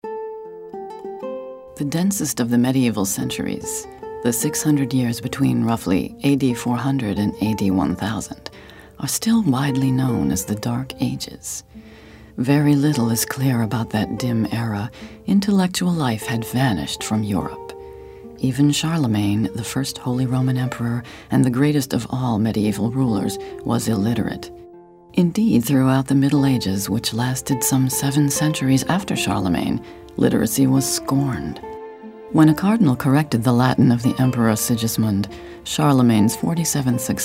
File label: narration history